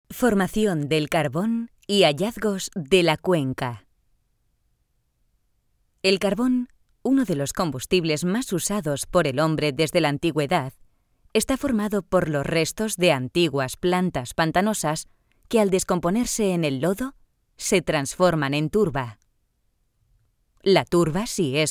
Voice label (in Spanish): audioguia; audioguia museo de la mineria; esp